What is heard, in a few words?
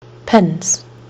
"pins", kiwi english